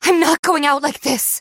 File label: pain low health warning; female